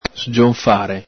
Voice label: "sgionfare", Veneto; fill, satiate; riempire, saziare; el Galepin

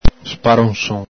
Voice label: "sparonson", Veneto

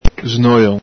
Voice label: "snolon", Veneto

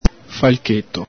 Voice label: "falcheto", Venetian

